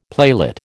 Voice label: "playlet", American